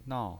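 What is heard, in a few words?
"nao", pinyin voice, Mandarin Chinese, fourth tone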